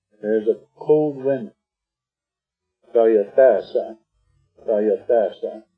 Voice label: "tóóyoo3éése'", Arapaho; tóóyoo3éése' = a cold wind is blowing; Arapaho Language Project; RMNP; Weather Vocabulary related to Winter